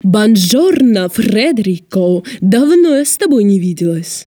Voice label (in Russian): italyanka